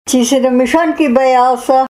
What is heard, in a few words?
you are always afraid of mice, russian